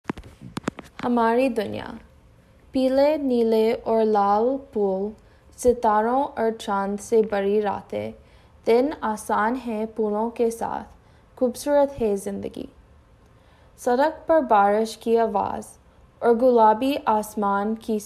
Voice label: Poem recite, Hindi